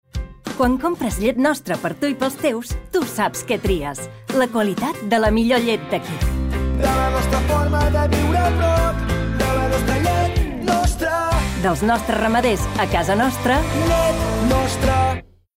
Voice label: female catalan milk comercial; ideasonora

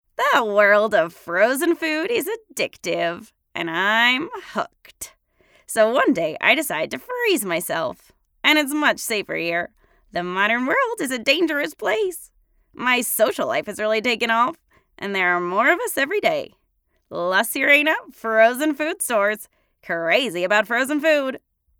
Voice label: female american english food commercial; ideasonora

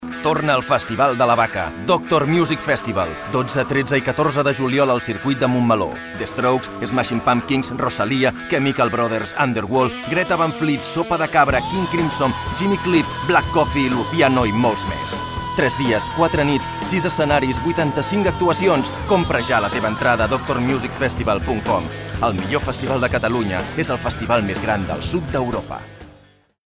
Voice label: male catalan music comercial; ideasonora

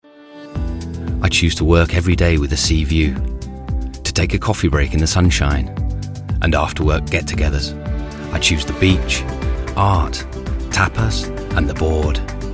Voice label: male british english bank comercial; ideasonora

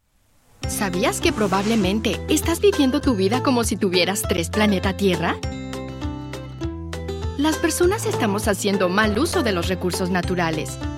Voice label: female Mexican tv comercial

